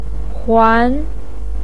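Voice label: "huan", Mandarin pinyin, second tone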